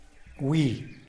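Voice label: "gwi" type of mouse, Zapotec; animals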